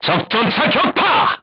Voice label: voice message target destroyed, korean, crew tanks